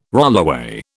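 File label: "rollaway", British English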